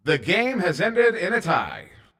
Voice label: Tie, Announcer